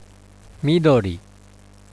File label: "midori", Japanese